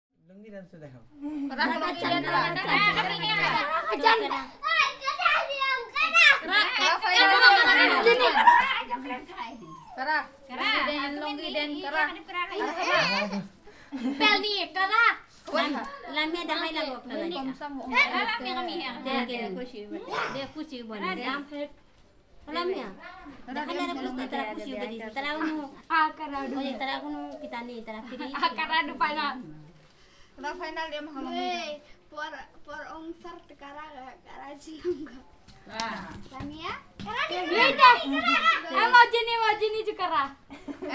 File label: Linguistic of Khasi, Bangladesh; Les Cartes Postales Sonores; NOISE ON EARTH Vol2